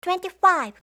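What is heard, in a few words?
"twenty-five", English; eng suuji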